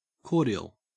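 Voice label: "cordial", Australian English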